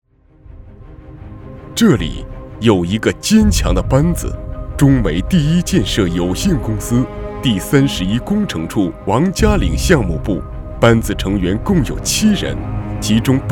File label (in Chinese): 男 专题 大气磅礴宣传片混音